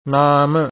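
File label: "naama", Pali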